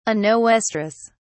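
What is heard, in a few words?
"anoestrous", British English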